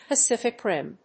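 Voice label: "Pacific Rim", English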